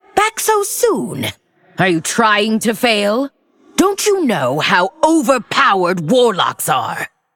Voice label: Female Undead Boss Reveal; Lich Tamsin; General

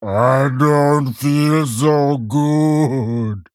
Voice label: Male Abomination Play

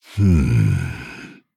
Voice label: Male Dragon Thinking

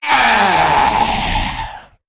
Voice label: Female Worgen Death

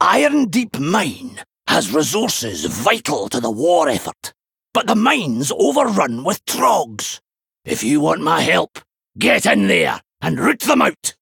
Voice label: Male Dwarf Boss Reveal General; Vanndar

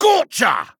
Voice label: Male Dwarf Attack

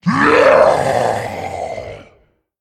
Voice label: Male Dragon Death

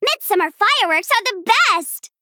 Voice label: Female Gnome

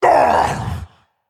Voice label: Male Doomlord Death

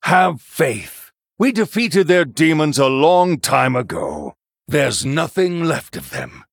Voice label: Male Human Victory; Uther

